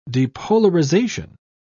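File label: "depolarization", English, British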